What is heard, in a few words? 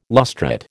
"lustrate", American